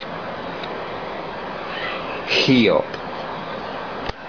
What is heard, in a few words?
"Hom", Tobi; One-half distance Hau to end; Fishing Lore of Tobi